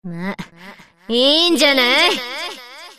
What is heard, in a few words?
Enemy Voice Anti-Air Cruiser Princess Damaged Under Attack